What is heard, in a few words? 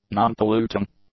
"non-polluting", english; adjectives